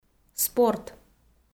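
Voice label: "спорт", Komi, female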